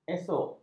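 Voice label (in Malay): "esok", Malay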